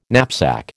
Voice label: "knapsack", American

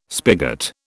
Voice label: "spigot", British

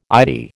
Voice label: "Eyetie", American

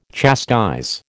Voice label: "chastise", American

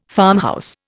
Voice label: "farmhouse", Indian

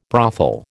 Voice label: "brothel", American

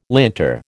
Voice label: "linter", American

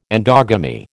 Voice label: "endogamy", American

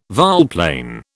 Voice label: "volplane", British

